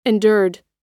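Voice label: "endured", American English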